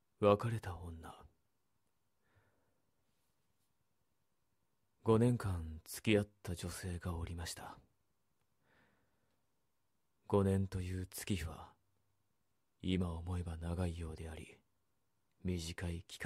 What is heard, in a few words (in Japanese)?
乙女向け 同人声優 ASMR 怖い話; VOL４ 別れた女-1